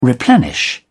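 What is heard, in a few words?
"replenish", British English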